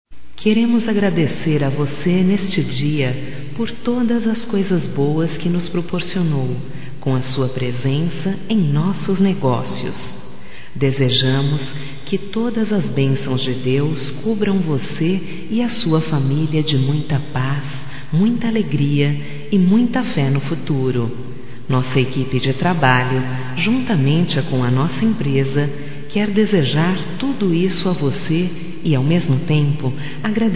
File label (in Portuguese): Agradecimento ao Cliente Feminino